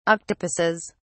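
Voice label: "octopuses", English, British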